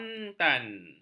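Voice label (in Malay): "kontan", Malay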